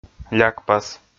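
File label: "лякпас", Komi, male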